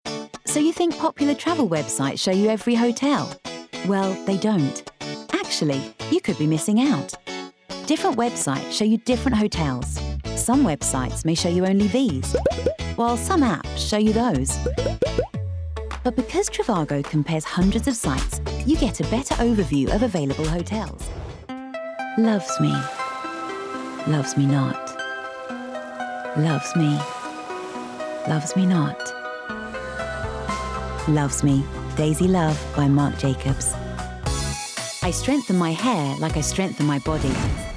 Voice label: Commercials Montage, 30/40's Neutral/RP, Friendly/Bright/Confident